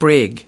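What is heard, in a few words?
"brig", US English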